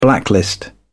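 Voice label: "blacklist", British English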